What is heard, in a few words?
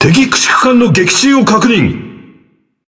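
Japanese Speech Destroy Enemy Destroyer; World Of Warships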